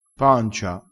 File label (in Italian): "pancia", crespinese